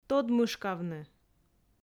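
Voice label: "тодмышкавны", Komi, female; audio dict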